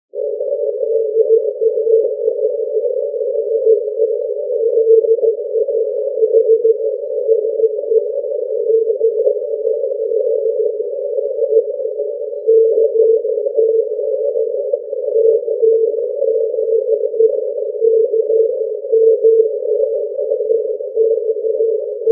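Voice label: cq 80m long path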